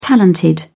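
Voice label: "talented", British English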